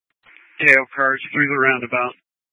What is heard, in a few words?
Tactical Incidents, scanner